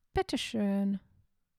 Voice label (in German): "Bitteschon", German